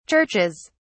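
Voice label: "churches", British English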